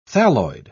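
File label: "thalloid", English, British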